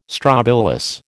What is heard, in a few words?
"strobilus", American